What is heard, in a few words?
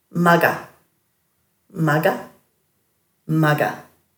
"maga", Dhurga